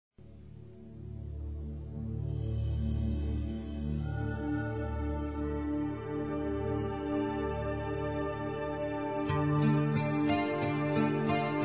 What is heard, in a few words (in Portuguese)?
Aniversário Avô Masculino